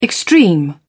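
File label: "extreme", British English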